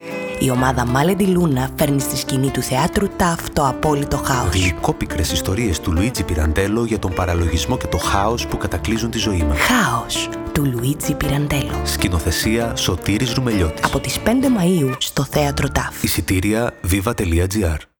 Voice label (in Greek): Χάος Radio Spot